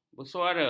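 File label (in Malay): "bersuara", Malay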